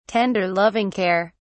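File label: "tender loving care", British English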